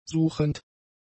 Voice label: "suchend", German; adjectives